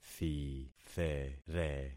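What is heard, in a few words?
"thithere", concatenated syllables; Affixing experiment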